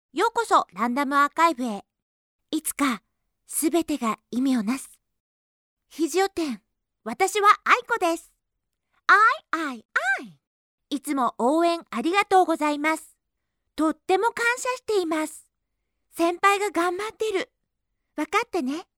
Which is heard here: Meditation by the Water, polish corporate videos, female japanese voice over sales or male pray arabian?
female japanese voice over sales